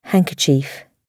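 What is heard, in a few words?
"handkerchief", British English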